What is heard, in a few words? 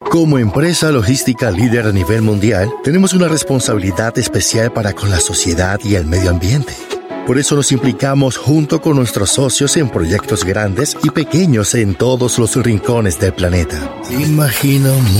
Latin Spanish Corporate, Male